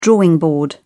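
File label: "drawing board", British English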